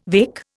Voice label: "weka", Indian

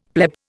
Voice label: "pleb", Indian; Dictionary

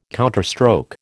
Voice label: "counterstroke", American

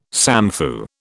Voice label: "samfu", British

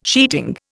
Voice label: "sheeting", Indian

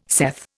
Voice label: "saith", Indian

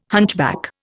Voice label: "hunchback", Indian

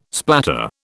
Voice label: "splatter", British

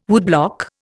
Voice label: "woodblock", Indian

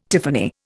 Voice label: "tiffany", Indian

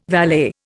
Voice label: "valet", Indian

